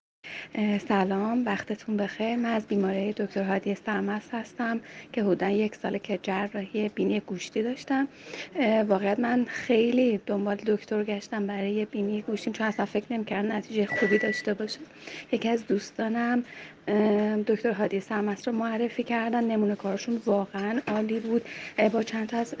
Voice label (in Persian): صدای رضایت